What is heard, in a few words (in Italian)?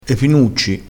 "pinucci", crespinese